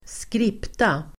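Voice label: "skripta", Swedish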